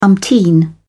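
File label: "umpteen", British English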